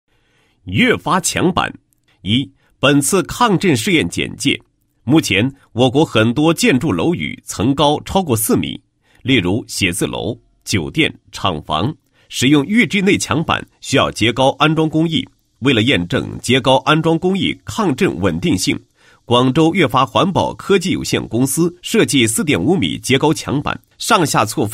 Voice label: 男 解说专题; 墙板抗震测试解说

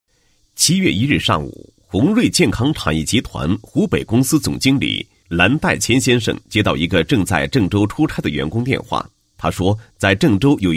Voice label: 男 企业专题 宣传; 红瑞集团献爱心

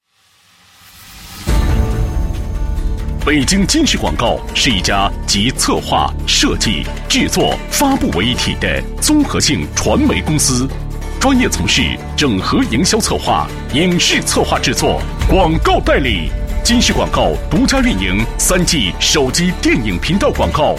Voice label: 男 广告, 国语男声配音; 北京今世

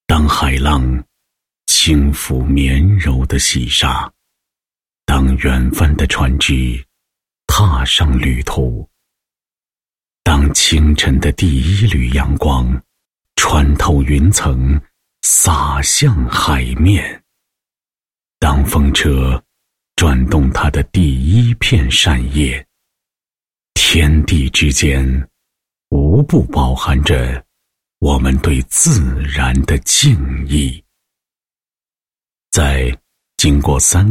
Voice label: 男 散文诗歌; 某地人民精神赞歌

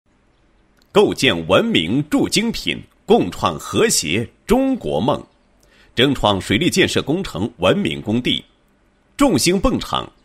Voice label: 男 工程解说; 构建文明铸精品共创和谐中国梦

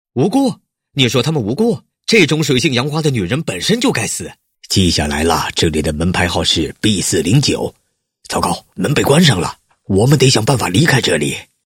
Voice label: 模仿 译制片, 国语男声配音

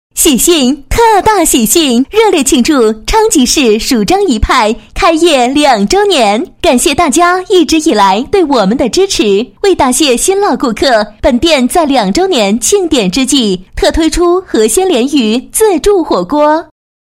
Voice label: 女 促销; 蜀张一派火锅店开业两周年

